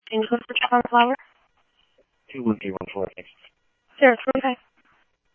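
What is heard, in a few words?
Mesa Police Department, scanner audio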